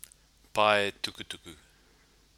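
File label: "pae tukutuku", Māori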